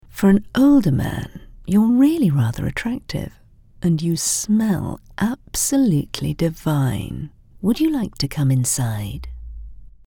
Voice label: Sultry